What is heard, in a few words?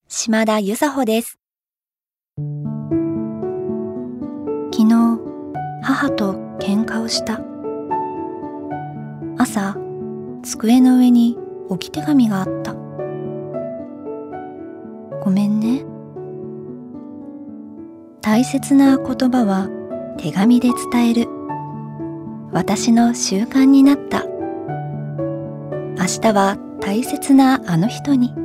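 commercial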